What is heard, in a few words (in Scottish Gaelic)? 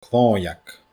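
"clòimheag", Scottish Gaelic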